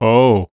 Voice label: "Oh", Human Male Middle Age